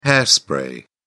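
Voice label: "hair spray", British English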